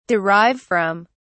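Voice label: "derive from", English, British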